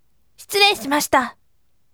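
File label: voice, bright; shiturei shimashita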